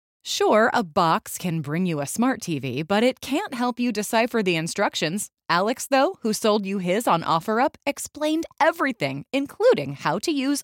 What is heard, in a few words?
Commercial Sample